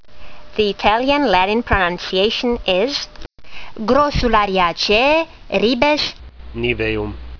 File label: latin; Ribes niveum